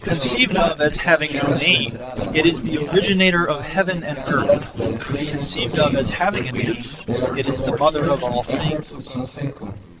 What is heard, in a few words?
male inst sim; SASSEC07